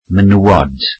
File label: "women", Welsh